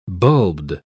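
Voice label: "bulbed", British English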